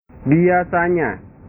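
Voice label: "biasanya", Indonesian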